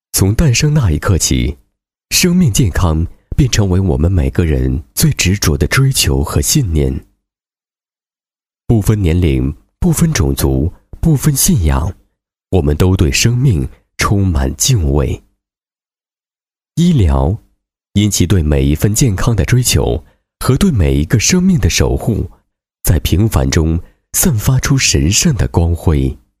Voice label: 男 专题; 安泰创新科技

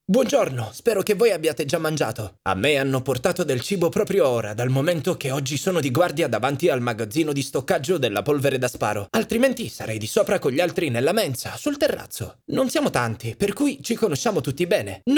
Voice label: 意大利语男, 语调快速

